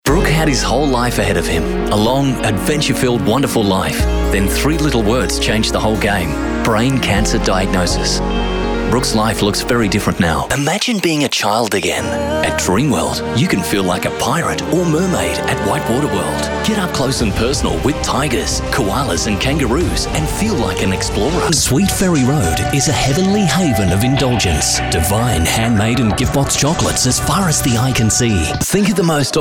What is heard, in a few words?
INTIMATE READS